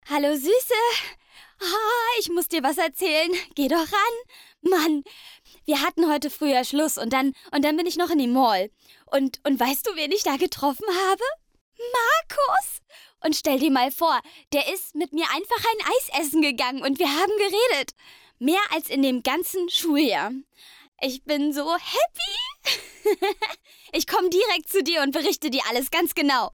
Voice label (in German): Verliebter Teenie Telefonat; LOFT VOICES